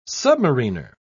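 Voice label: "submariner", British English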